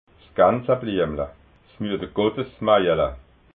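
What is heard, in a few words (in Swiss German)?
"gansabliemla", Alsatian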